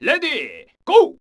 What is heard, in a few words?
Ready Go, camera video; SKY IM-U680L